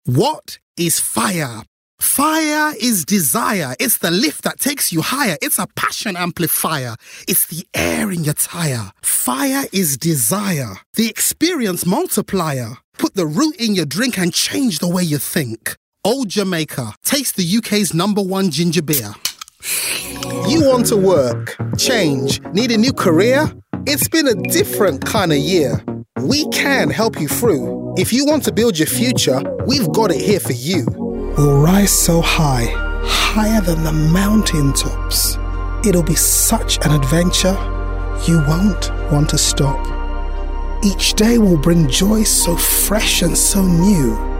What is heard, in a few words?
Rhythmic Word